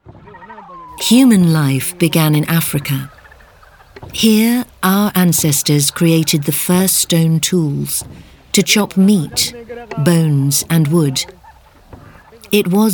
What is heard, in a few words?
Narrative